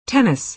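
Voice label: "tennis", American English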